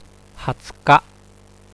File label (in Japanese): "hatsuka", Japanese; calendar